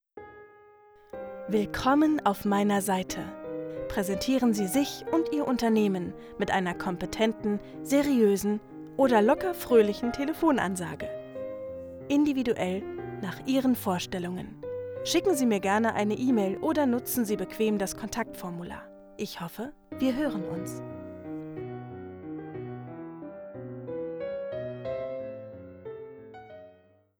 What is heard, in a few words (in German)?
Angebot AB Ansage mit Hintergrund Klavier; Telefonansagen